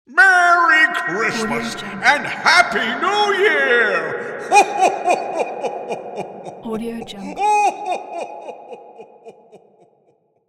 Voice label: santa yelling merry christmas happy new year

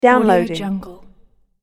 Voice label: downloading british female voice

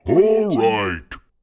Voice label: fantasy giant says all right

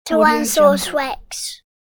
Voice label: boy says tyrannosaurus rex

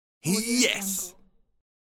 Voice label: male voice expression "yes"

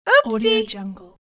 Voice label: female saying oopsie

